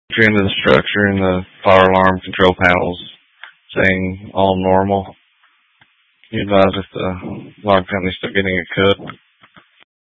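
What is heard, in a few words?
Fire Dispatch, scanner audio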